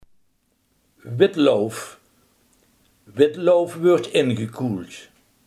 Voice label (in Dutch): "witlof", limburgse